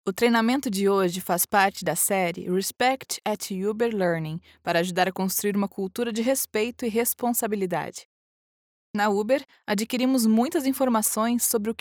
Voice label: elearning training corporate professional female brazilian portuguese; uber